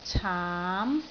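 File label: "caam2", Cantonese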